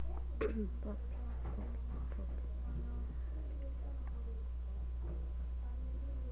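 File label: "puppy", spoken word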